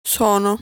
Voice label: "Sono", Italian

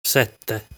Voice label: "Sette", Italian; lesson 2 numbers

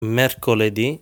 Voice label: "Mercoledì", Italian

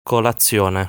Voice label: "Colazione", Italian

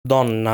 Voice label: "Donna", Italian; lesson 6 articles plural

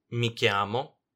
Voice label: "mi chiamo", Italian

